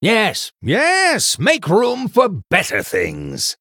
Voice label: Male Human After Selling